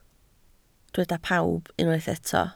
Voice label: "pawb", Welsh